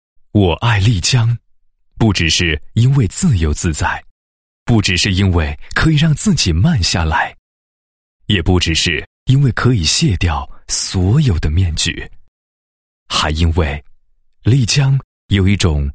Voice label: 男 散文, 古城宣传散文; 丽江

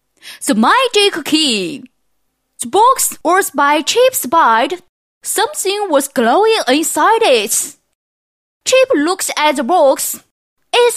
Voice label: 英女 英语 英童

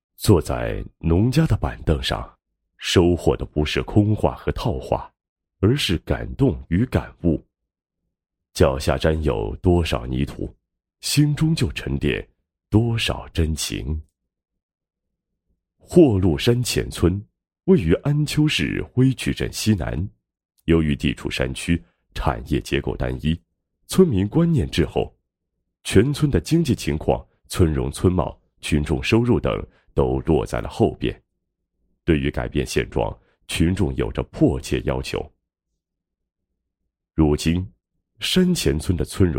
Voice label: 男 人物专题 下乡记; 第一书记

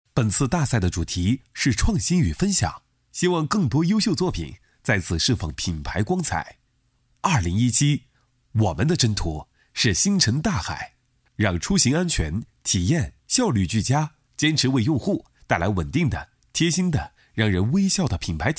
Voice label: 男, 宣传介绍 市场营销大赛解说; 蓝莲花

